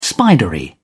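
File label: "spidery", British English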